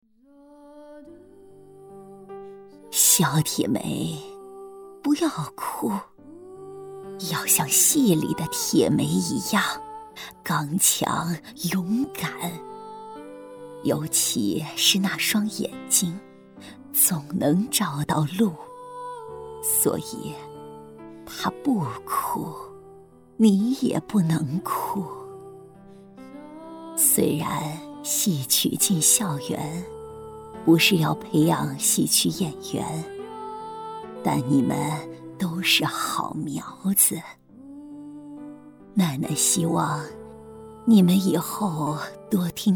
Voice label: 奶奶音; 铁梅奶奶

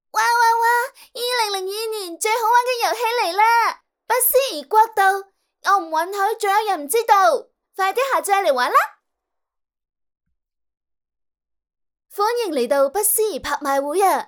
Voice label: 粤语游戏角色

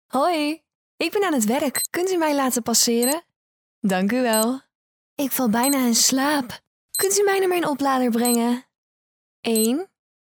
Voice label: 荷兰语女

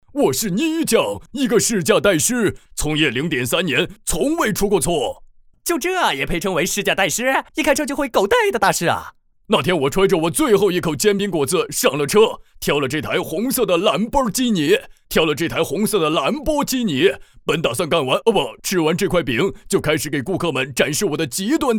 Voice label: 精分猛男贱男; 欧洲豪车模拟器

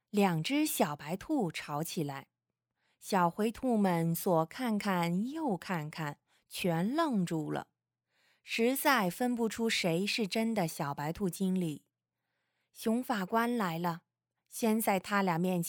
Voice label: 睡前故事样音

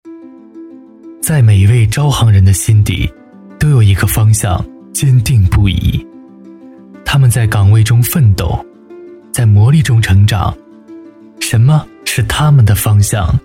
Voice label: 宣传 走心沉稳; 银行宣传走心沉稳招商银行

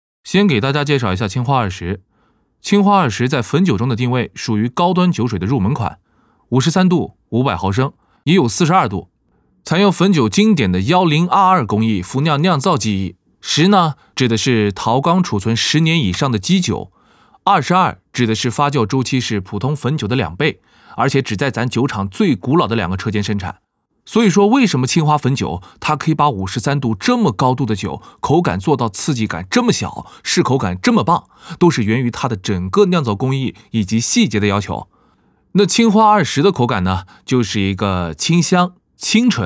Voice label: 带货口播

